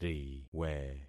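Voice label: "riwe", concatenated syllables; Affixing experiment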